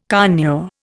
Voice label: "conure", Indian